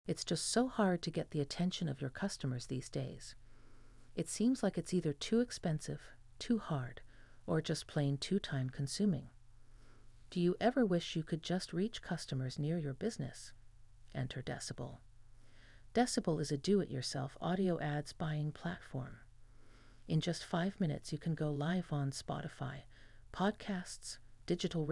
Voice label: ad voice